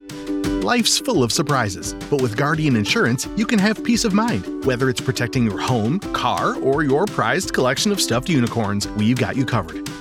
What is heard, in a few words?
Commercial Insurance, Male Voice; male voice actor located in central Kentucky; Guardian Insurance